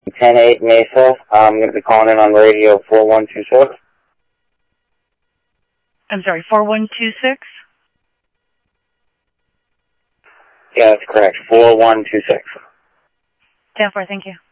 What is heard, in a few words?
Security, scanner audio